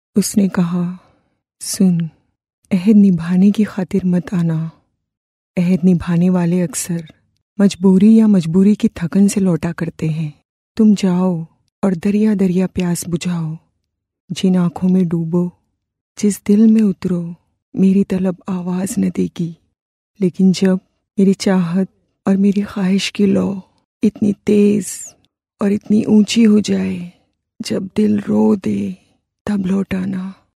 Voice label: Urdu female

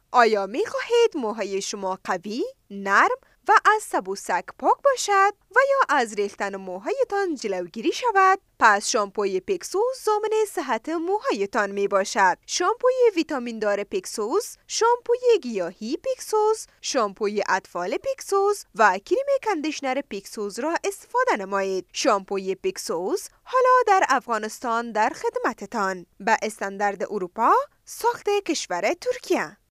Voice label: pashto female

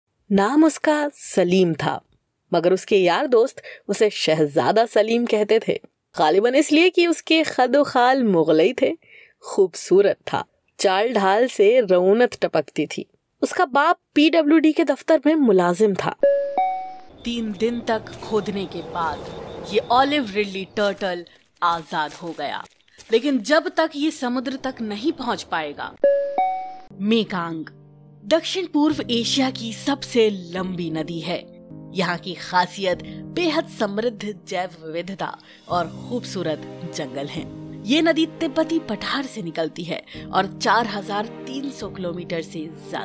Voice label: Urdu female

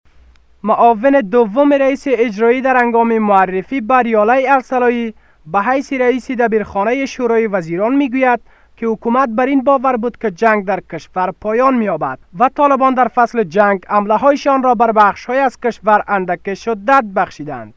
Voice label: Dari Male

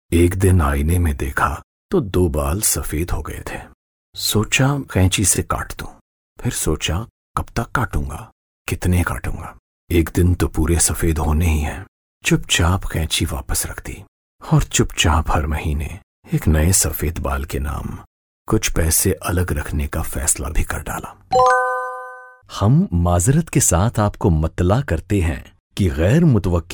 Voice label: Urdu male

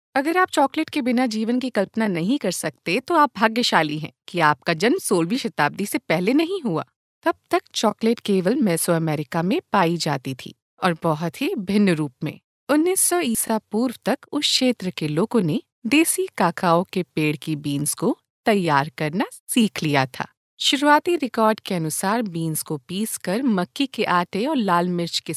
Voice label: hindi female

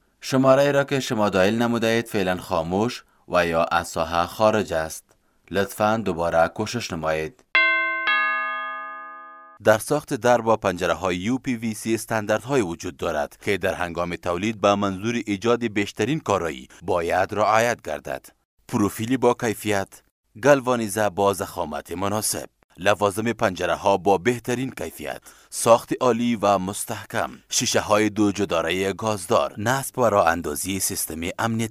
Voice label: dari male

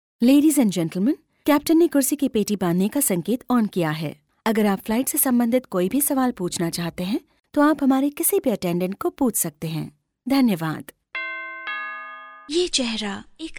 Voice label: Hindi Female

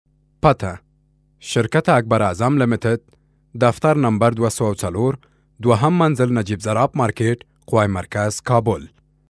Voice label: pashto male

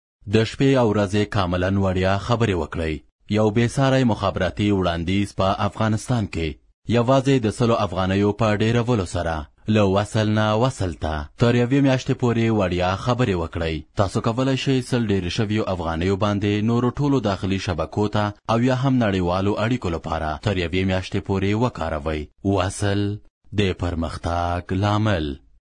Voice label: pashto male

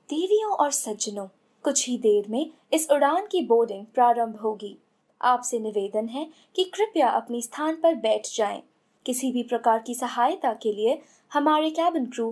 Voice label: Hindi Female

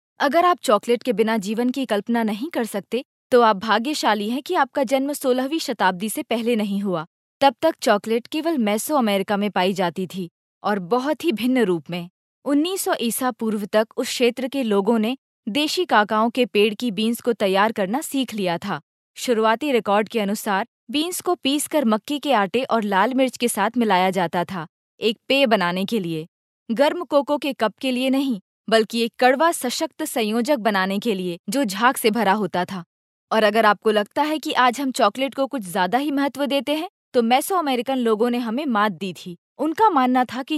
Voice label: hindi female

